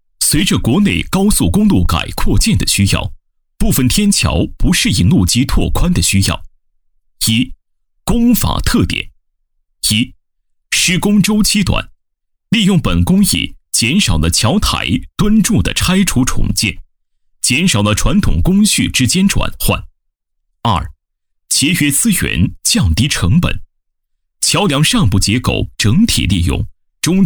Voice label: 男 多媒体 高速公路 厚重硬朗, 大气硬朗 大气浑厚; 男1026-多媒体--高速公路【厚重硬朗】

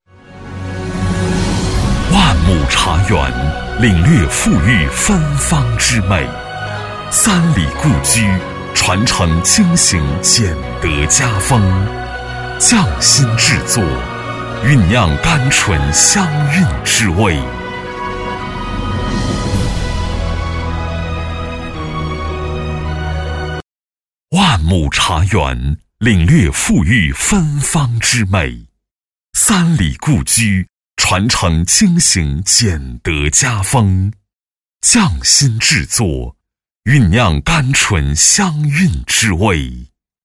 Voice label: 男 城市宣传广告 大气优美; 和美兴隆

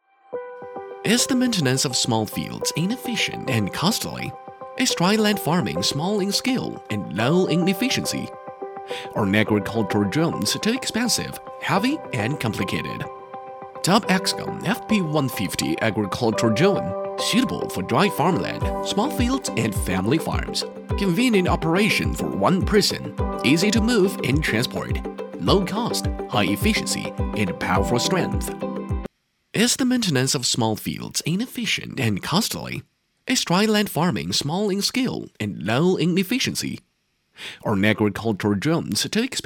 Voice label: 男 英语配音【年轻】【科技感】; 中英双语 科技感

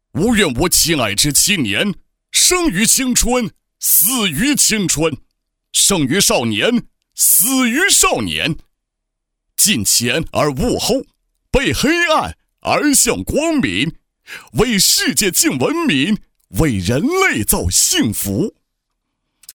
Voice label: 男 角色 革命烈士, 厚重大气 大气浑厚; 男123-角色【革命烈士】

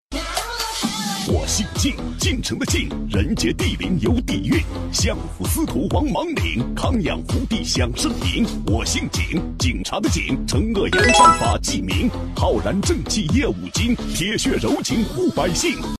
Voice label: 男 喊麦; 大气激情 激情力度; 我姓晋

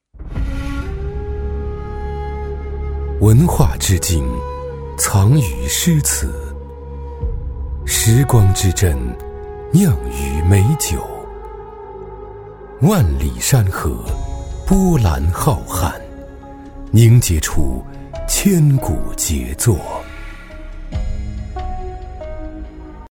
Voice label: 男, 高端广告, 抒情质感; 中英双语 大气浑厚; 千古杰作

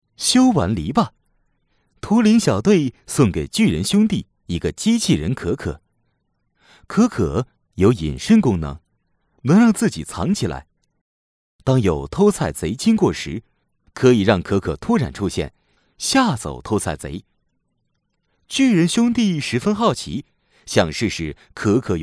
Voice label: 男 儿童课件, 年轻全能 质感磁性; 水獭

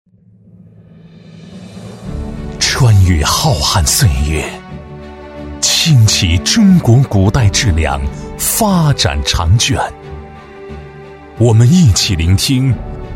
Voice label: 男 宣传片 大气磁性; 抑扬顿挫 大气浑厚

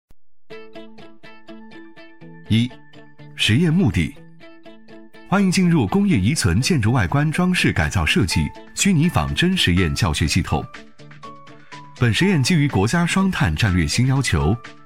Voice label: 男 试验教学 轻松讲解, 时尚科技 自然诉说

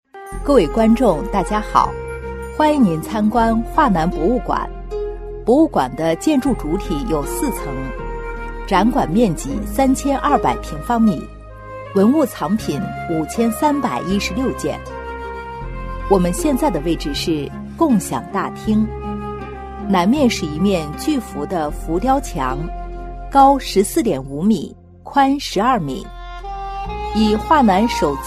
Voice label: 女 专题解说词 温暖叙述; 桦南博物馆; 成熟知性

